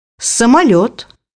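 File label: "airplane", Russian; bukvar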